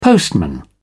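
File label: "postman", British English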